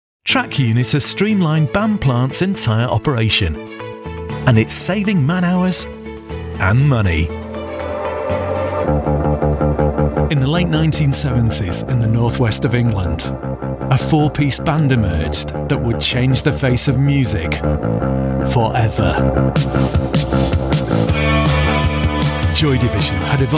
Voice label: corporate